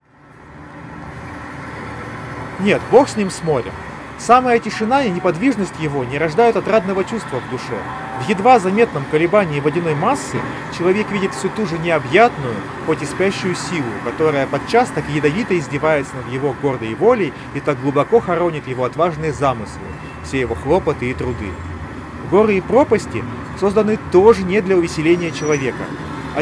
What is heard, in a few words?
Recorder noise; Запись на профессиональный рекордер; Soundcore Sleep A30